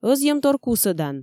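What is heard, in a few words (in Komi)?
ӧзйӧмтор кусӧдан, Komi, female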